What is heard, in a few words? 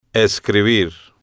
"escribir", Spanish